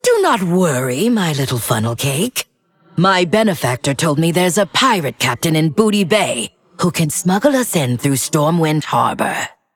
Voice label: Female Undead